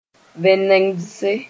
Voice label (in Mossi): "vẽnegdse", moore